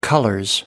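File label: "colors", British English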